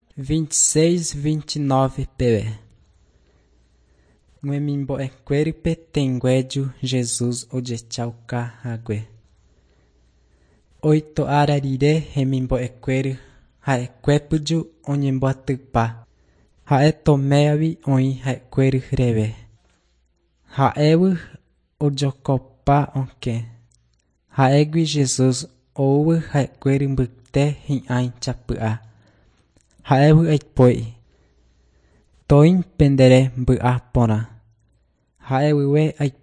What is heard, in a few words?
john guarani mbya, bible